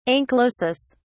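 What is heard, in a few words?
"anchylosis", English, British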